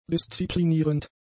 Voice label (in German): "disziplinierend", German; adjectives